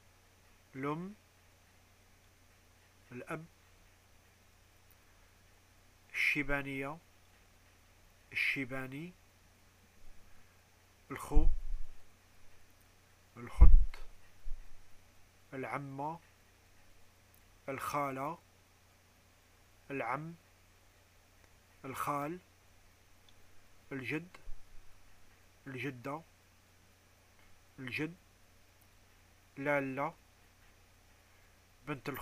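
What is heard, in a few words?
family, arabic, Algerian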